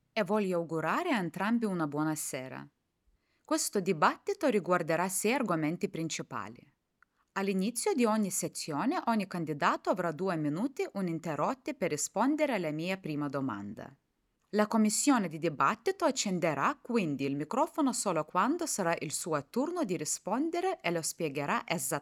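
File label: female Lithuanian and Russian Italian corporate training; reel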